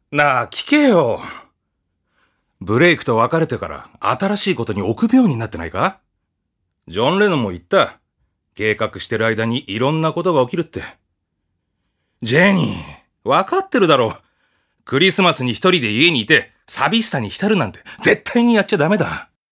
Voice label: male voice; セリフ

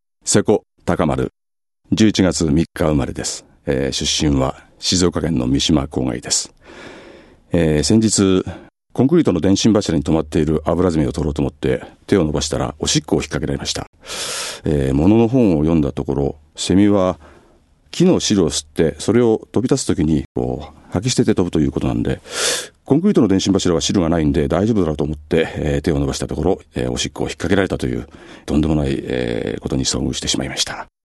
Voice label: freetalk, male voice

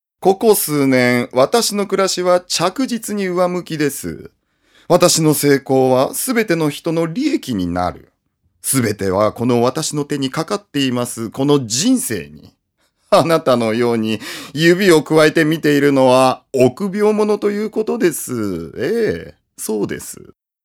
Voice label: male voice

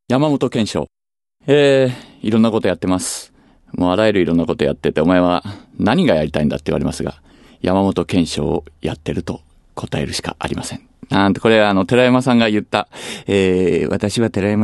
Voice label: freetalk, male voice

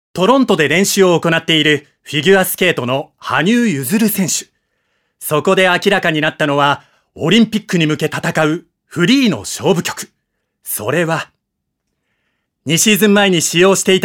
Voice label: male voice; ナレーション

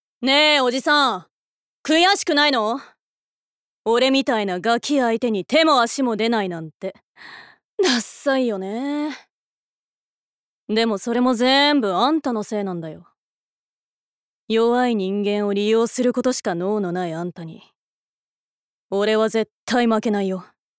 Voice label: female voice; セリフ

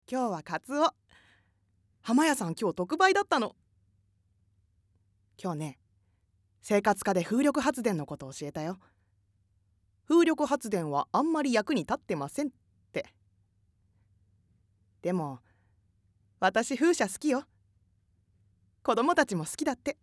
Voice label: female voice

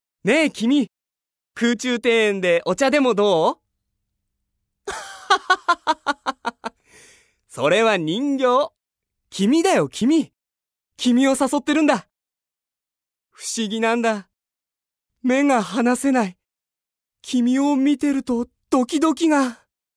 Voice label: male voice

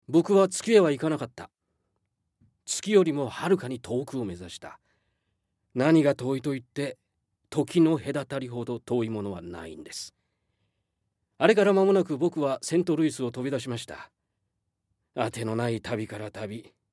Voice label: male voice; セリフ

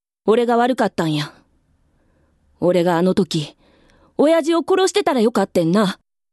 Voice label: boy, female voice

